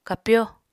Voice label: "kapyó", Apatani